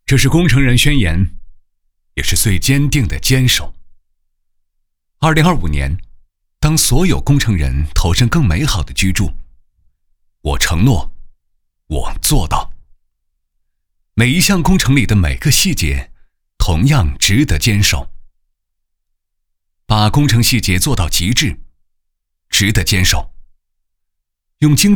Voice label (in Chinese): 男 宣传片; 这是工程人宣言